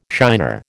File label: "shiner", American